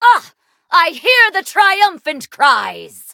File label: upgrade, en; cookie run